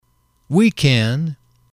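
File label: we can, male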